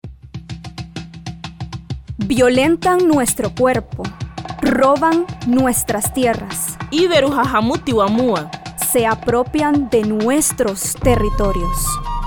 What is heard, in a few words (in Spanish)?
Cuña radial; campaña Foro Mi Territorio no es propiedad